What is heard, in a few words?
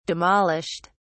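"demolished", British English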